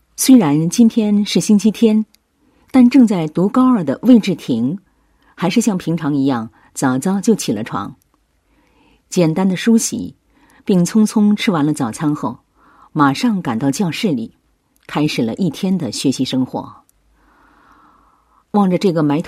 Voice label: 女 旁白 播音 宣传; 大爱橄榄枝; 橄榄枝助学宣传

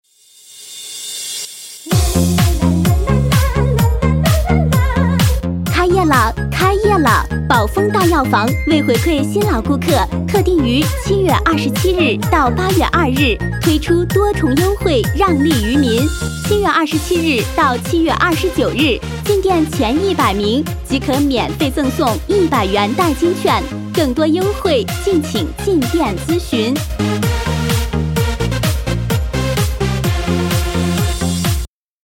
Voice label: 女,广告,激情,音乐; 开业特惠

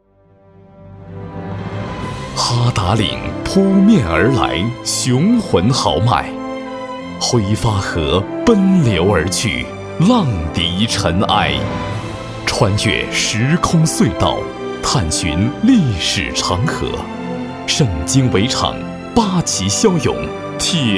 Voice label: 男 专题 大气; 哈达岭铺面而来

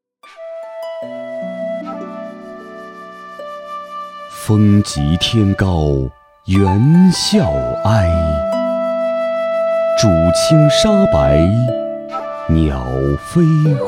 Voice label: 男 诗歌朗诵 登高

